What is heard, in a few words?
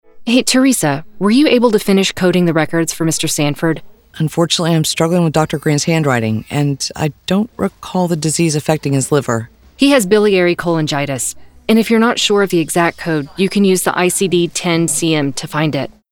Medical INTERACTIVE